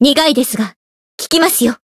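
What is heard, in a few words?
Voice heal, Japanese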